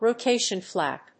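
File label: "rotation flap", English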